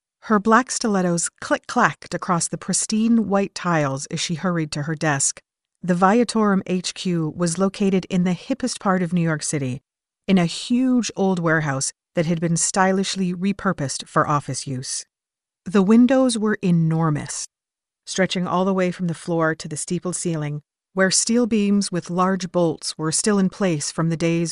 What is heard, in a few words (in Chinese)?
美式女声